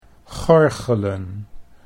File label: "gorgelen", Dutch